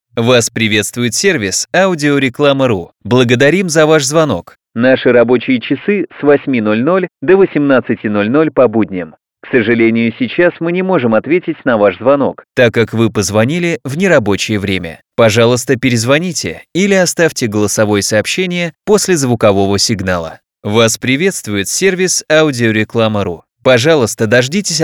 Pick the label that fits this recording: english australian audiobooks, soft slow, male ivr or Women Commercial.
male ivr